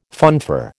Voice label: "funfair", American